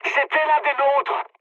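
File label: French player killed ally mood high; War Thunder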